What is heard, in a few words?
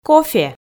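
"кофе", Komi, female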